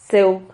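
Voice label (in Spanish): "zeug", Spanish syllable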